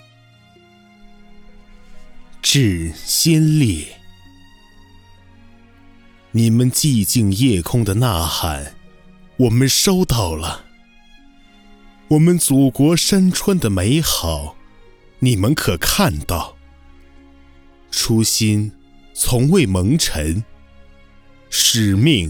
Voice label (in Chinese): 旁白垫乐